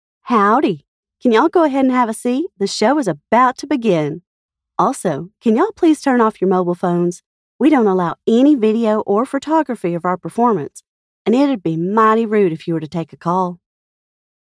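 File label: English US, Theatre Curtain Messages, Southern; GoLocalise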